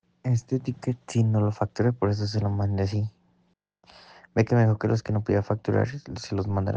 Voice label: whatsapp ptt